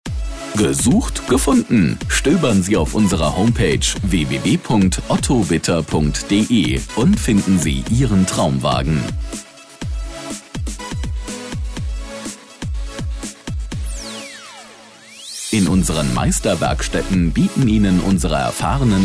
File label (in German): Warteschleife Stereo; Telefonansage Autohaus – KFZ – Werkstatt – Gebrauchtwagen Händler